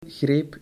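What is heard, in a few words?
"greep", Dutch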